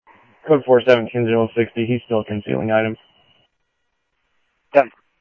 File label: Mesa Simulcast, MESA PD, scanner audio; 2 Centrl; MesaPoliceDep